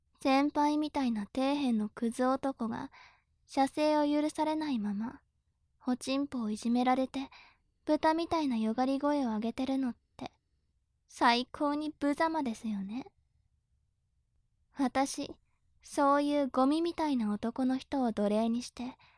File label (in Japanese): 調教中音声, ASMR